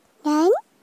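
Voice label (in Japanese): "nani" Japanese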